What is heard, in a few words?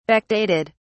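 "backdated", British English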